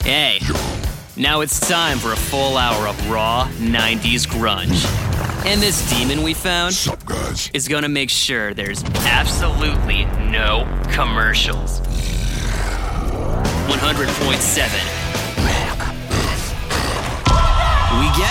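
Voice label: Imaging, Youthful Upbeat Millennial Voice Actor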